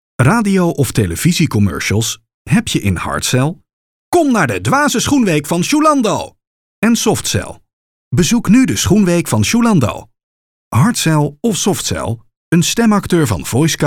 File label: Commercial